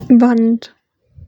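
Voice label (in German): "Wand", German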